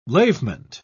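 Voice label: "lavement", British English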